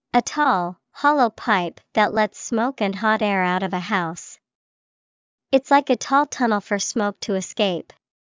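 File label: chimney, English vocabulary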